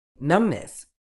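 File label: "numbness", British English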